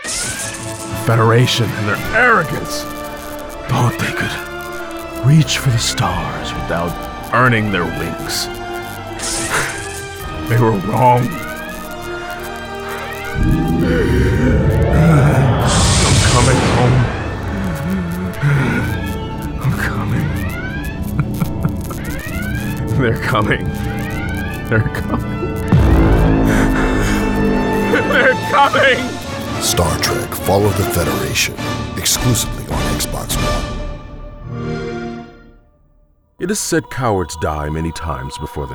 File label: Video Game Trailer Business Casual Voice Overs